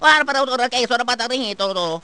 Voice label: gibberish; luigi